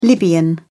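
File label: "libyan", British English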